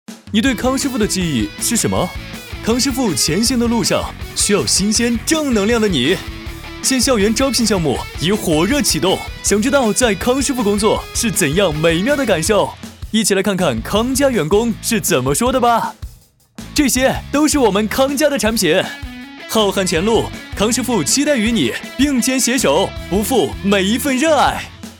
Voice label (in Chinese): 激情欢快; 康师傅校招